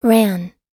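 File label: "ran", American English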